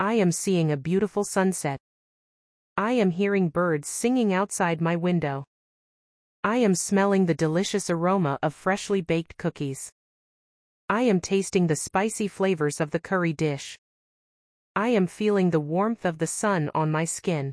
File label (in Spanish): ejemplos verb. percepcion ingles